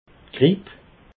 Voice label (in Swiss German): "gripp", alsace